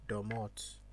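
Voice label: "domot", Nigerian Pidgin, male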